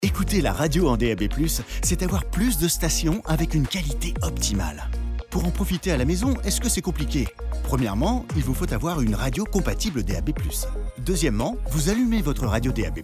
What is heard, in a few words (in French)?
PUB Ton sympa dynamique; DAB Noel